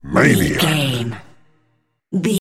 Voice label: announcer voice classic fps style "maniac"

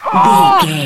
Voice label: radio voice male soldier hold

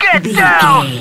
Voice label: radio voice male soldier get down

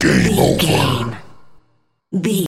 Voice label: announcer voice classic fps style game over

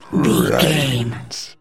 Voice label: zombie voice brains

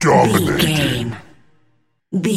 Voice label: announcer voice classic fps style "dominating"

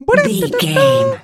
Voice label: voice fun character cute cartoon

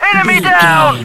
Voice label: radio voice male soldier enemy down

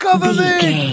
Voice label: voice male soldier cover me

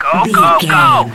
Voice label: radio voice male soldier go go go

